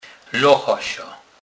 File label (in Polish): "locha", kurpiow